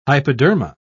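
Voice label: "hypoderma", British English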